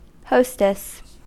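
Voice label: "hostess", US English; pronunciations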